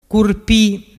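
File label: "curpi", Vernazzese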